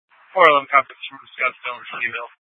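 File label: Police Department North Dispatch, scanner; Scottsdale PD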